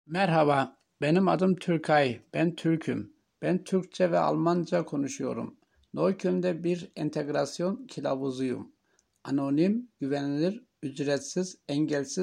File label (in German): tuerkisch